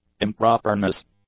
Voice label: "improperness", English; nouns

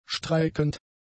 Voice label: "streikend", German; adjectives

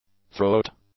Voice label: "throat", english; nouns

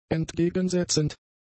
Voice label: "entgegensetzend", German; adjectives

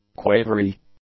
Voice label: "quavery", English; adjectives

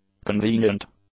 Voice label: "convenient", English; adjectives

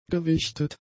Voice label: "gewichtet", German; adjectives

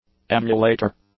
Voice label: "emulator", English

